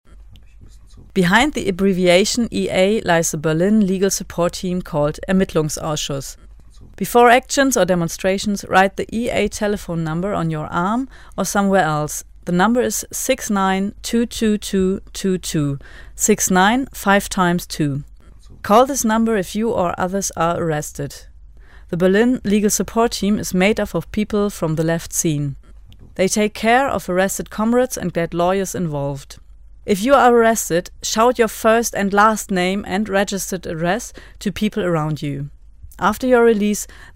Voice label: jingle englisch